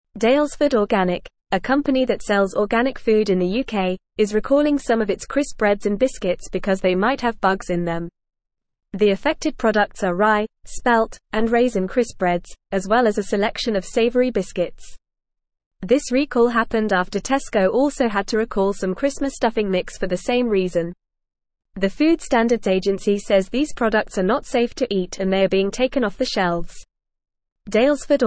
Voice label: English Newsroom Upper Intermediate FAST Reading